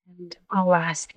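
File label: Voice Acting es, female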